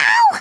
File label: "ouch", Scouser